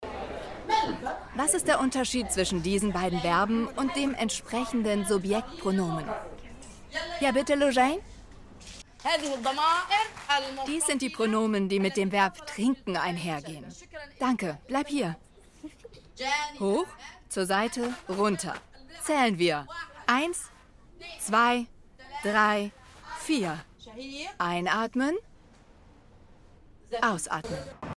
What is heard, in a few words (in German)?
voice over doku; lehrering; arte